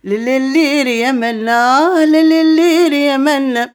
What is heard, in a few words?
OLD WOMAN, Arabian Traditions